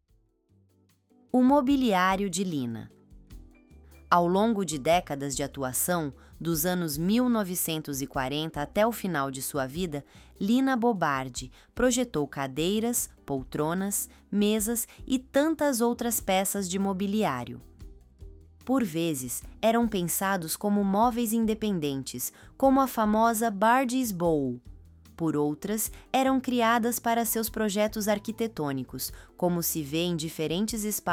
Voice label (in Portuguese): narracao